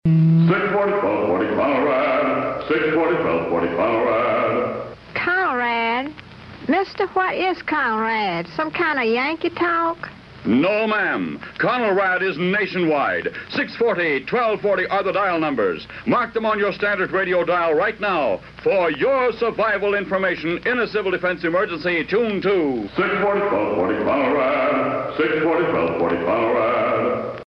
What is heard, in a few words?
generic promo; conelrad